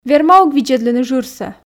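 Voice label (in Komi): верма ог видзӧдлыны жырсӧ, Komi, female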